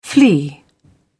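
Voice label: "flee", British English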